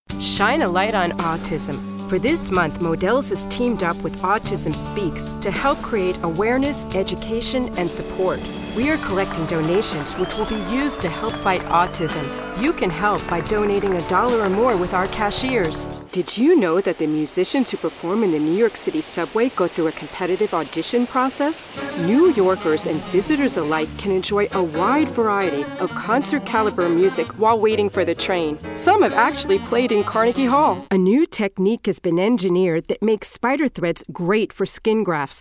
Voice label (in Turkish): Amerikan Ingilizcesi Kadin; seslendirme